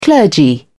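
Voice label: "clergy", British English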